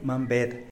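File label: "mambed", Tlacochahuaya Zapotec; roadrunner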